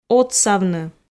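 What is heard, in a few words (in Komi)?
"отсавны", Komi, female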